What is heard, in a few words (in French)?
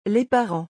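"Les parents", French; vocabulaire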